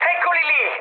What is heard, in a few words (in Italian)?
enemy detected, mood med, speech it; War Thunder